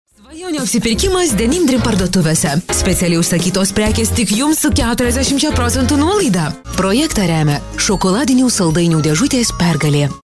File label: female lithuanian voice over sales